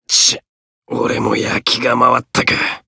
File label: voice win barely jp; hardy